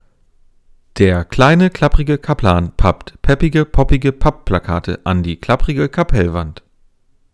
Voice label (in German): Aufnahme mit Reflexionsschutz 15cm